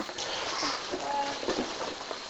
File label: Childs voice; Prospect Place; Prospect Place 2010; EVPS